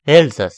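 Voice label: "alsace", French; france; gcompris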